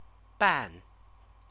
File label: "ban", Chinese